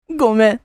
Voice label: Sorry anime boy character; cartoon